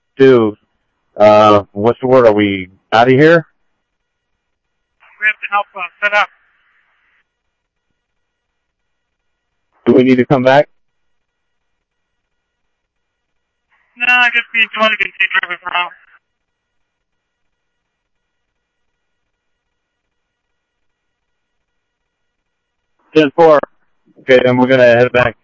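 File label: Simulcast police department, scanner audio trunk; Mesa Simulcast; MESA PD 3 Red Mt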